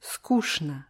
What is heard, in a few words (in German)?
"skuschno", russisch; НЕ-Wörter mit Synonymen